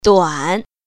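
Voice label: "duan3", Mandarin Chinese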